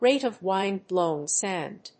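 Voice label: "rate of wind-blown sand", English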